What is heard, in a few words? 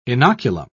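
"inoculum", British English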